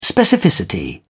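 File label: "specificity", English, British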